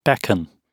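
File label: "beckon", British English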